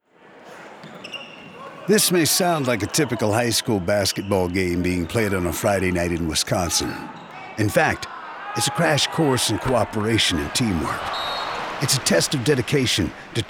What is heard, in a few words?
audio spot